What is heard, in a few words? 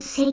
Serious Word; Midna